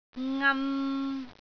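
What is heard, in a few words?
"ngan3", Cantonese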